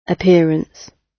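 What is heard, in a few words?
"appearance", British English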